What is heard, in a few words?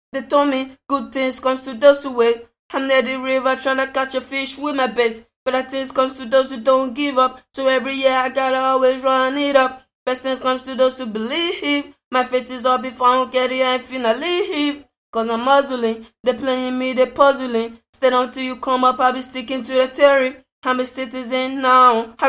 acapella, hh 60 CM